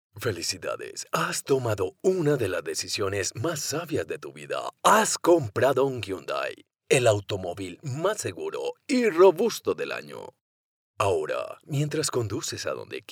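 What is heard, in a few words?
Spanish Spain, male, voice over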